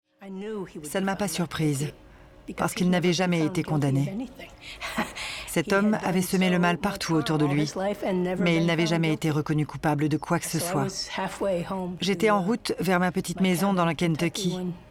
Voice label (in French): voix off; crimes